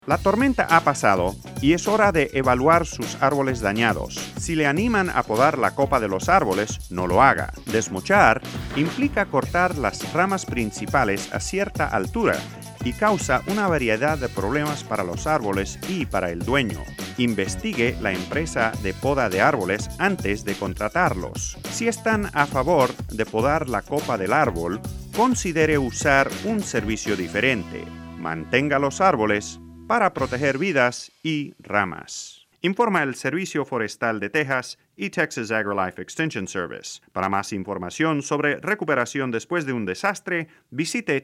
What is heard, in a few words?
Spanish